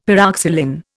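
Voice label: "pyroxylin", Indian English; Dictionary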